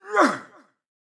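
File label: soldier voice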